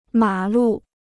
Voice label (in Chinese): "马路", Chinese